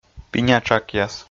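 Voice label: "пиня тшакъяс", Komi, male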